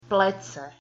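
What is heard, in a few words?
"plece", Slovak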